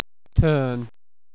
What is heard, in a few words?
turn uk; CrazyEnglish